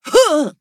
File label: defeat, zh-hant; cookierun